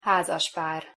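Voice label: "hazaspar", Hungarian pronunciation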